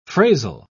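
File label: "phrasal", British English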